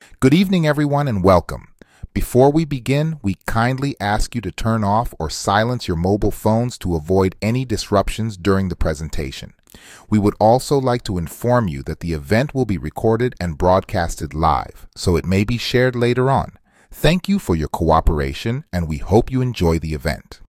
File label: announcement